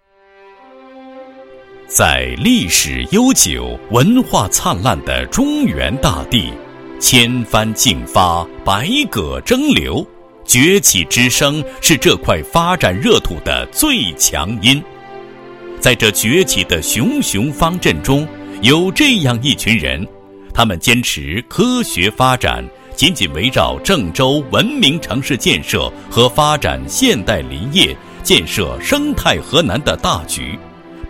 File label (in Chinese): 男 解说; 文明单位建设活动纪实